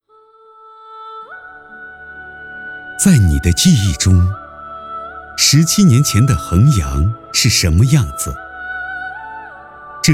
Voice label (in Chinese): 男 纪录片 航拍中国风格